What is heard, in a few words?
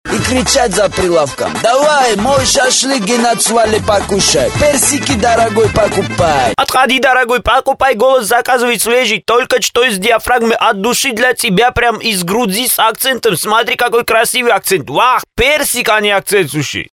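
Gruzin, Georgian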